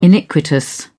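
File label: "iniquitous", British English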